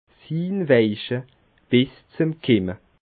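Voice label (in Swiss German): "inweiche", Alsatian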